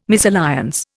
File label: "misalliance", Indian